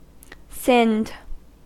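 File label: "sinned" English, US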